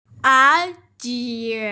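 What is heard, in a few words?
"er qie", Mandarin Chinese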